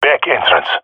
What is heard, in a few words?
back, jungle male epic; vo; agents; Source Model Packs; Counter Strike Model Pack; CS2